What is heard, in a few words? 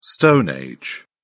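"stone age", English, British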